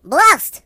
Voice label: "Drat", voices Russian; hedgewars